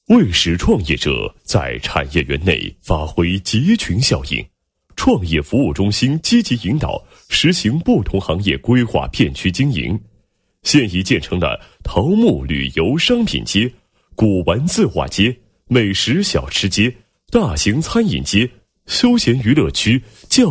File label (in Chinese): 男 企业宣传 宣传配音; 肥城市综合物流园区项目